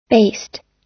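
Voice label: "based", American English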